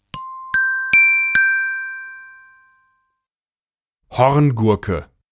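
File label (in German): "Horngurke", German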